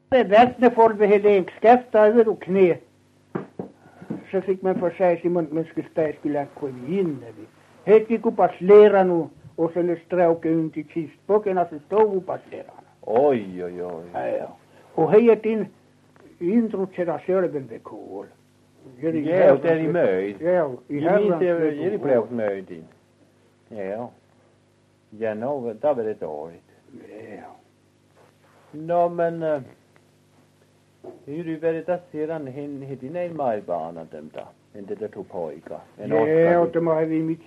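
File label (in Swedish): Överkalix Norrbotten, dialekter; Folkminnen; Svenska sagor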